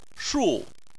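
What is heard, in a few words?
"shu" tone 4, Chinese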